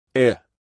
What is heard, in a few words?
Phoneme Male; Commonscript; Lynguistykon